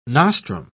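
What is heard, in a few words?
"nostrum", British English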